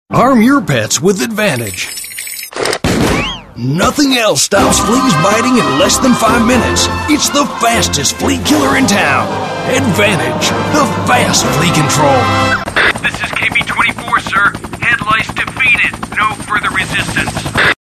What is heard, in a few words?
character